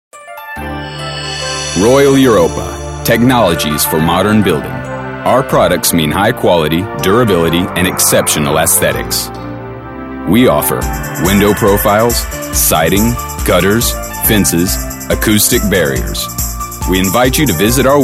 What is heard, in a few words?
COMMERCIALS